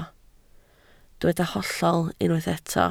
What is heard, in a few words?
"hollol", Welsh